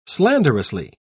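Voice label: "slanderously", British English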